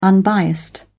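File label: "unbiased", British English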